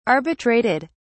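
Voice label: "arbitrated", British English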